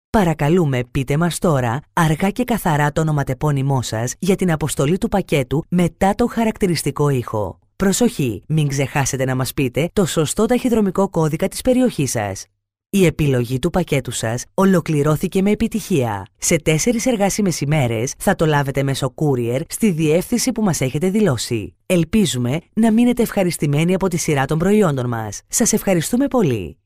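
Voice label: Greek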